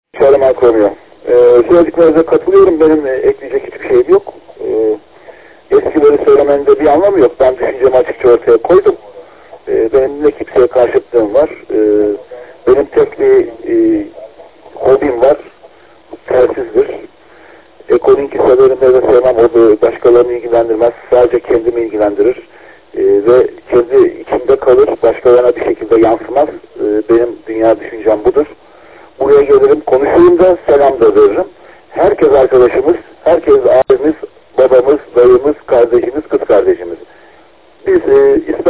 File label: QSO; KONFERANS ODASINA SATASMALAR